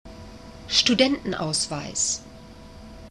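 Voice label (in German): "Studentenausweis", German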